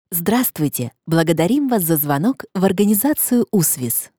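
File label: IVR Russian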